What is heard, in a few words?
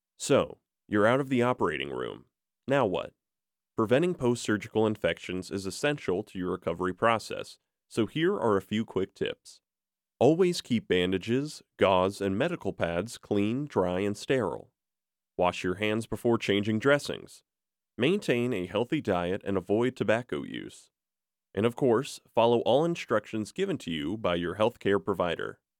Informational Medical; Harrisonburg Virginia